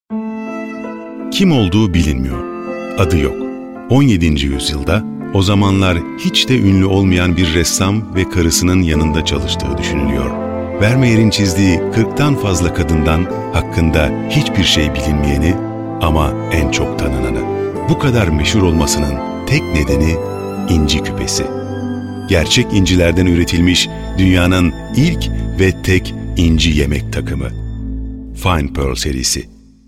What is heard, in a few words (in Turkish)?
duygusal motive